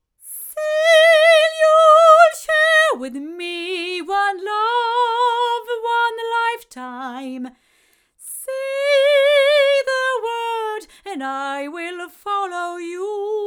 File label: sE 2A cardioid female, microphones; se electronics